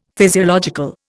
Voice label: "physiological", Indian; Dictionary